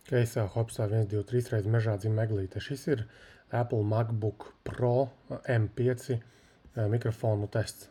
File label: mics; MacBook Pro M5